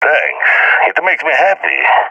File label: thankful, balkan; balkan epic